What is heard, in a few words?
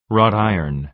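"wrought iron", American English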